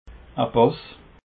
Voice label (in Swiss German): "pass", alsace